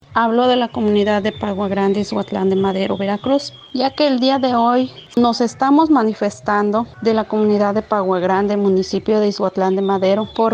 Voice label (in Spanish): voz manifestante